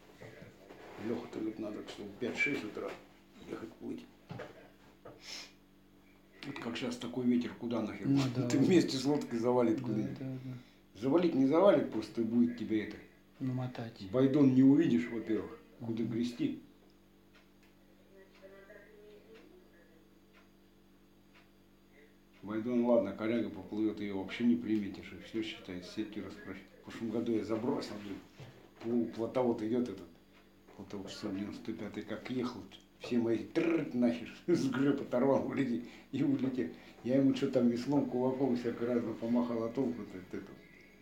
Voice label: middle ob dialect; байдон